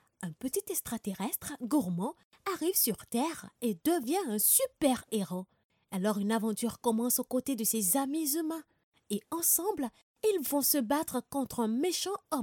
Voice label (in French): Voix Off Promo; Nous vous offrons la possibilité du télétravail